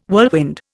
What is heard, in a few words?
"whirlwind", Indian